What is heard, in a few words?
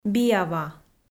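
"биава", Komi-Zyrian, female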